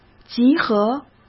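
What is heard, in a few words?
"集合", Mandarin Chinese; chinese flashcards; hsk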